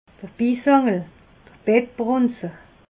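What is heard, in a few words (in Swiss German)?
"bissali", Alsatian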